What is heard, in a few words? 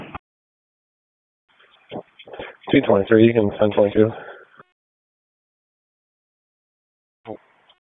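Raleigh Police Northeast District Dispatch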